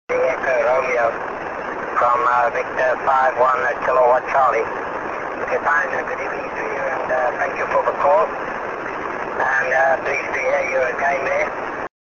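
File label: 6m single sideband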